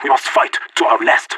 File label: pep talk; Counter Strike Model Pack; CS:GO; leet